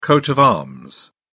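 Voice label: "coat of arms", British English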